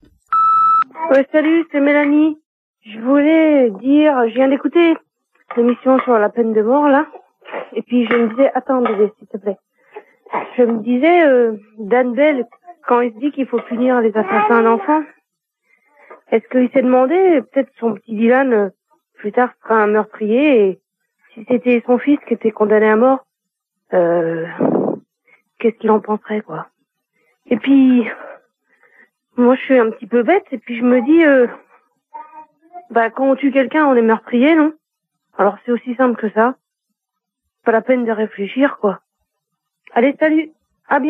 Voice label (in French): repondeur